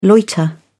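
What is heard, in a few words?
"loiter", British English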